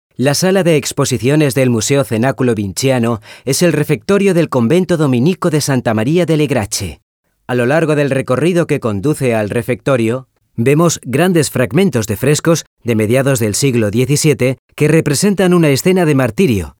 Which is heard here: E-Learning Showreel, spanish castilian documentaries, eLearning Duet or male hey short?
spanish castilian documentaries